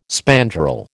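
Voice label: "spandrel", American English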